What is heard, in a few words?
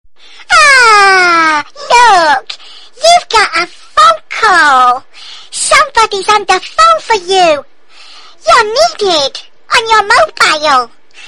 child voice